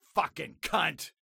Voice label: Voice Male Late Thirties Swear Fuckin Cunt Vicious